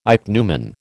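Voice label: "ichneumon", American English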